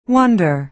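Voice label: "wonder", American English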